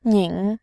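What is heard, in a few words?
"nin", Mandarin, tone 3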